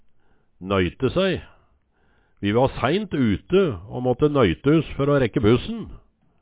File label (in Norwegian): "nøyte sæi", Numedalsmål; Vi va sæint ute å måtte nøyte uss fer å rekke bussen